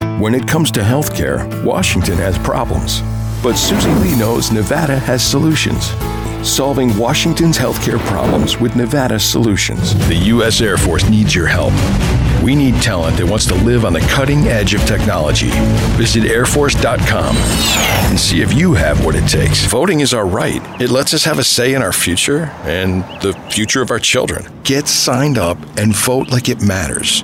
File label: men political